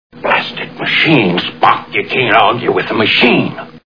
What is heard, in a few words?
argue with machine; Star Trek